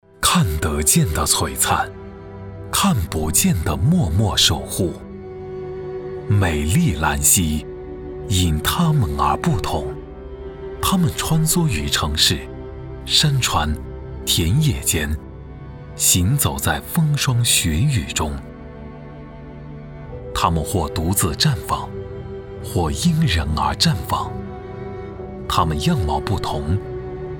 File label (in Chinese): 男 人物纪录片 旁白振奋感动